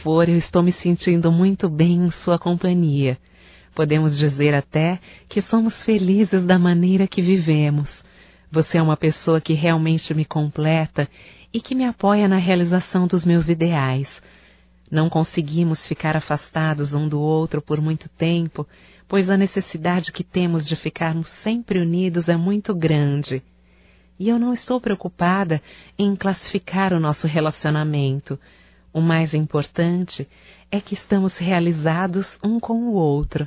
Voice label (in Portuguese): NAMORO OU AMIZADE(F), Românticas fem